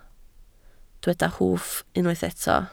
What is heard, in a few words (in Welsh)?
"hwff", Welsh